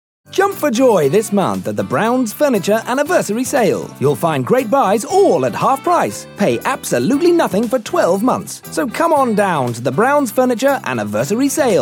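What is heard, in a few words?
British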